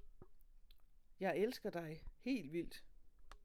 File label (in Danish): Ae aelsker dae dansk